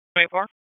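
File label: Police Southeast District Dispatch, p25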